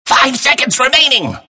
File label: announcer count pick; Clockwerk